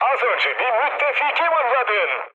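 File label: Turkish player killed ally, mood med, speech; War Thunder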